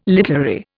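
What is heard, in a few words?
"literary", Indian English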